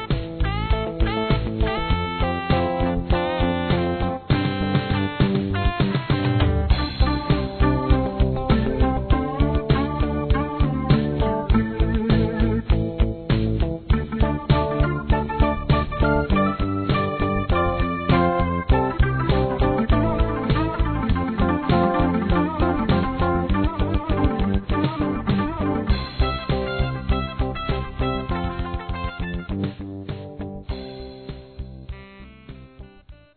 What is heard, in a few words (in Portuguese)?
Aniversário Cliente Feminino, Telemensagens; Empresarial; Telemensagens 2017